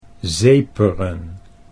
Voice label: "zeperen", Dutch